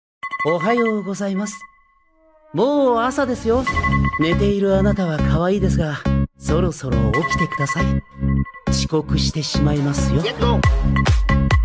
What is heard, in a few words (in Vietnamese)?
bao thuc voice nhat